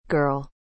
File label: Girl